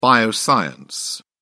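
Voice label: "bioscience", British English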